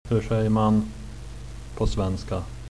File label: how to say, swedish